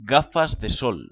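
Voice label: "gafas de sol", Spanish; ktuberling; tdegames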